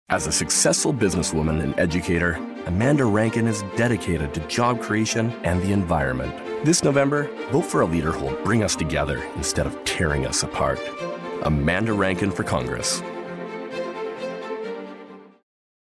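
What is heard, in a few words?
Commercial Voice Over, Authoritative · Clear; Commercial Demo Clips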